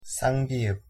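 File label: "ㅃ", Korean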